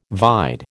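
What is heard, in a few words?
"vide", American English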